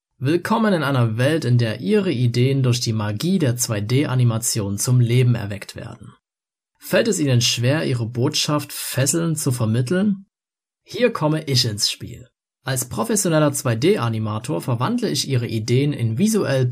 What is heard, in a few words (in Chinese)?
德语男声